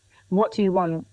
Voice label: Voice Acting, es, F0